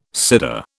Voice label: "Seder", British